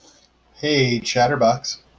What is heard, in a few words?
hey chatterbox, en-us